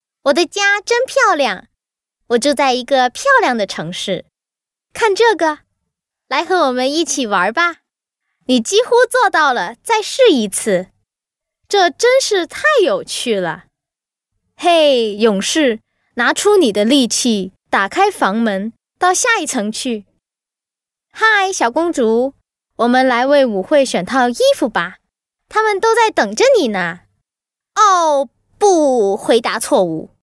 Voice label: Toys Chinese Mandarin Female

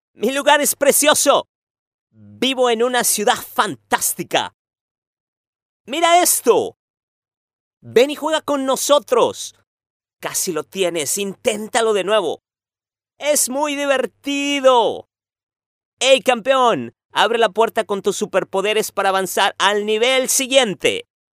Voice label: male children

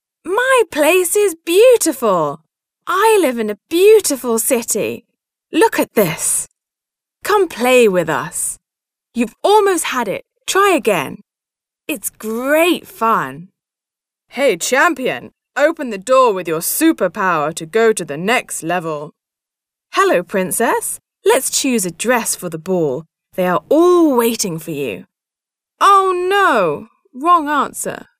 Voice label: GB fem children

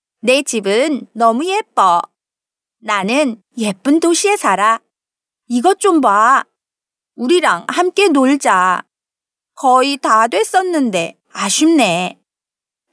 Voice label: Female Korean Toys

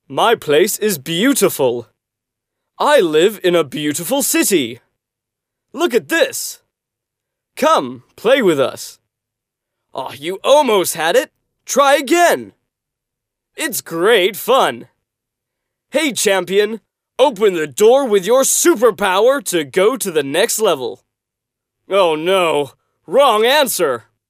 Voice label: GB male children

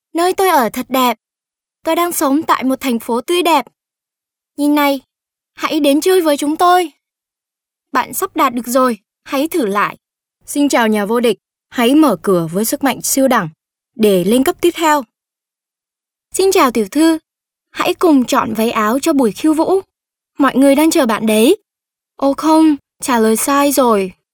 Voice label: Female Vietnamese Toys

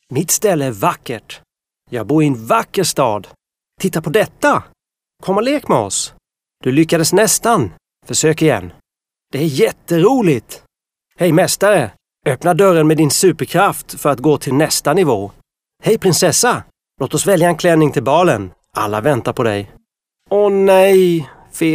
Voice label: Male Swedish Toys

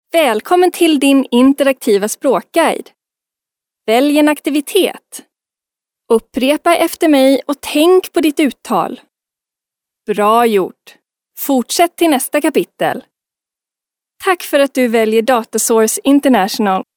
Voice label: Female Swedish Other Projects

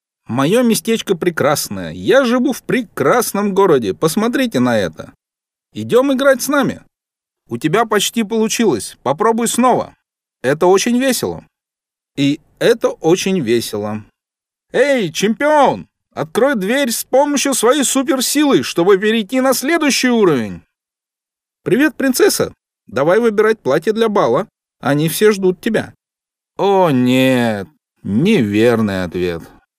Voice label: Male Russian Toys

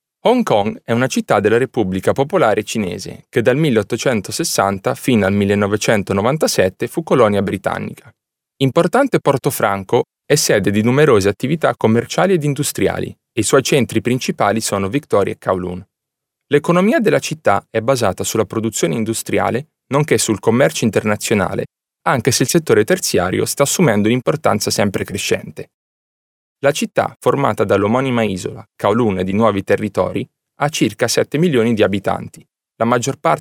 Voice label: Male Italian

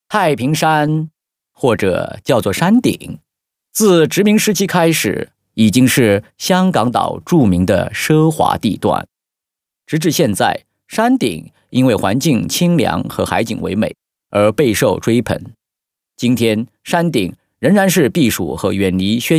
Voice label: Other Projects Chinese Mandarin Male

